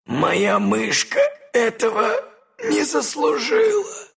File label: death, ru; Dota 2